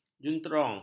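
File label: "juntrong", Malay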